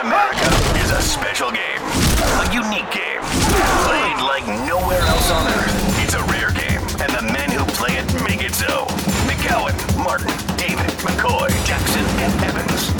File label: Radio Imaging SPORTS